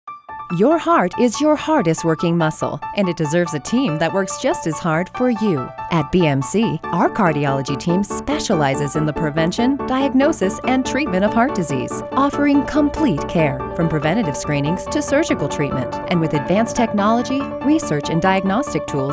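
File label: English US, Kommentar, Neutral; Fremdtake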